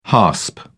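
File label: "hasp", British English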